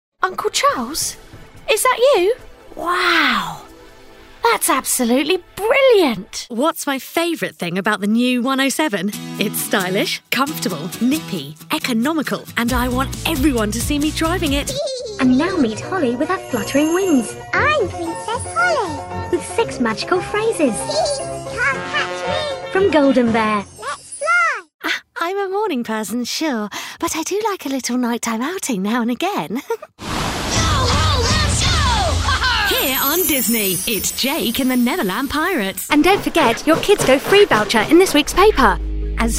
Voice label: young showreel